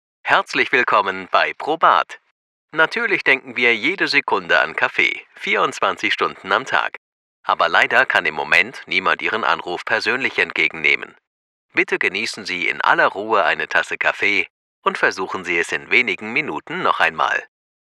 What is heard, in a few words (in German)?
telefonansage kaffee; DE